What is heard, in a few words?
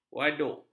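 "waduk", Malay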